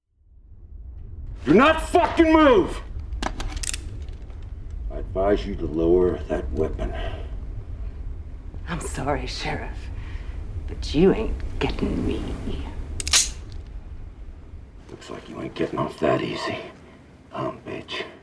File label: devil voice